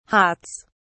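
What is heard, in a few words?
"hots", British English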